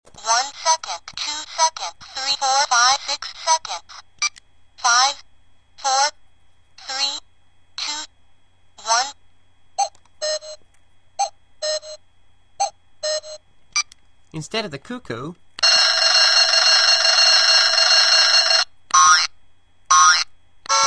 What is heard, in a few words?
talking timer clock